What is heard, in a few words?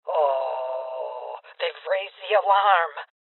Female Old; Alarm State; rebel cops 2019 gamerip; Voices